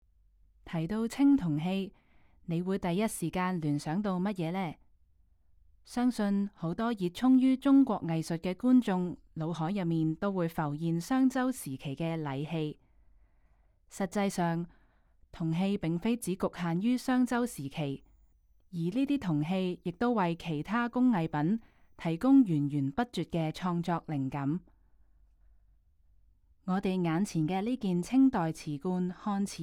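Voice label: Cantonese, audio guide; g3-clay-to-treasure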